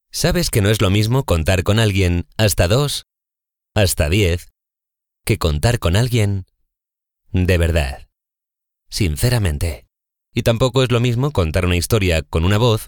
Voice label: spanish promos

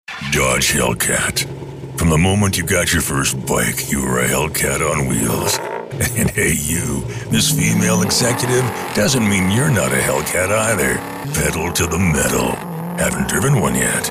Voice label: english american tv shows

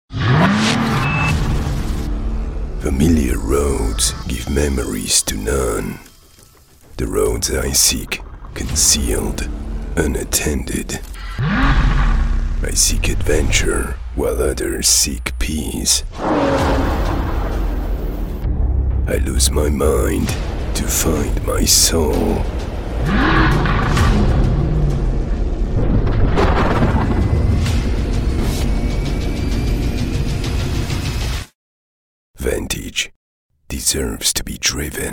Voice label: english automotive

